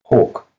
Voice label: "hoc", Anglo Saxon